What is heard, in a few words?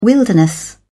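"wilderness", British English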